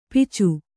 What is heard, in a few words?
"ಪೀಚು", Kannada